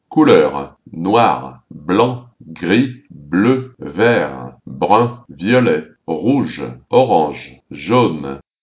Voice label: french; colors

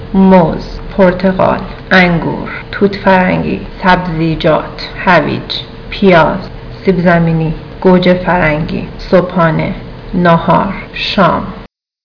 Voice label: farsi food

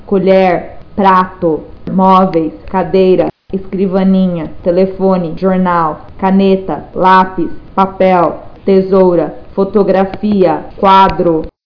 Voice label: portuguese objects

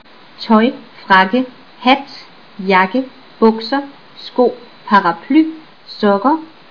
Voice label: danish; clothes

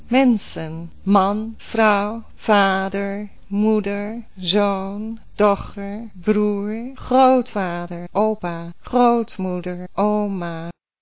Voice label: dutch; people

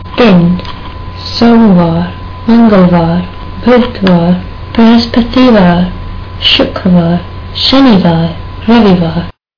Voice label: hindi days

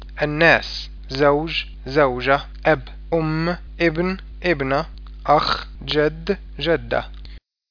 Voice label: arabic; people

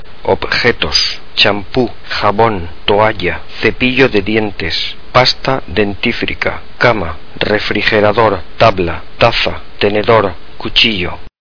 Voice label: Spanish objects

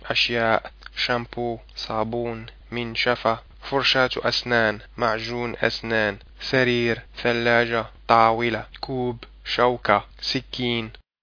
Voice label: arabic

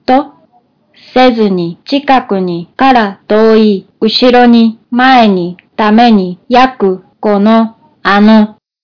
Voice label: japanese words

